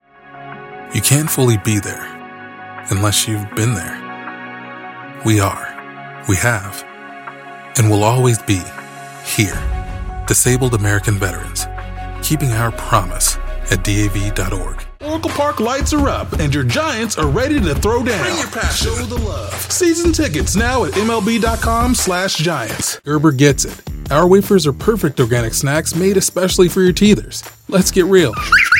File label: Commercial